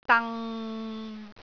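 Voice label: "dang6", Cantonese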